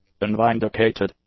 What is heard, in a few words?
"unvindicated", english; adjectives